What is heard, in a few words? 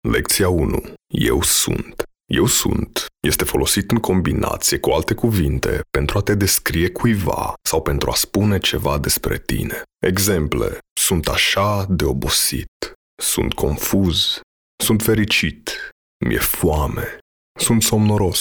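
Romanian, male, VO, eLearning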